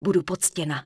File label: Night Elf Female Priestess Greeting; NPC; wowpreklad; czwow